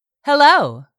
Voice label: "hello", English; 3000 words

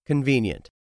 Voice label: "convenient", english; 3000 words

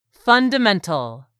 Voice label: "fundamental", English; 3000 words

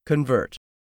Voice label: "CONVERT", English; 3000 words

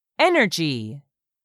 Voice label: "energy", English; 3000 words

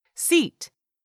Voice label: "seat", English; 3000 words

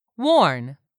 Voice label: "warn", English word; 3000 words

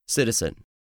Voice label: "citizen", english; 3000 words

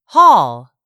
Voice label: "hall", English; 3000 words

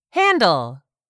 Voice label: "HANDLE", english; 3000 words

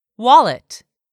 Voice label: "WALLET", English; 3000 words

